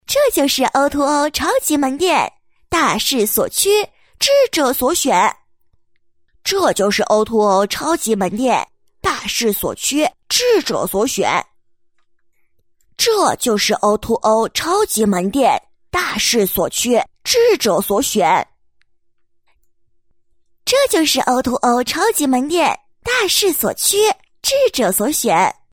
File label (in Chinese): 女 男女童